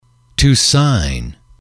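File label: to sign, English, male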